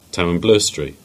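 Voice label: "Newquay", Cornish; place-names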